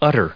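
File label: "utter", US English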